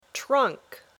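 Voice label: "trunk", English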